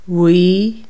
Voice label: "boasted", Irish